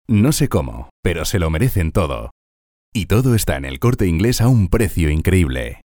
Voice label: male spanish commercial; dictor

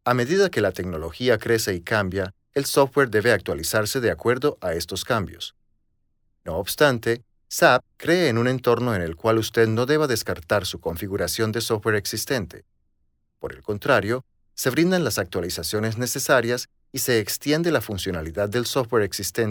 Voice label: mexican male

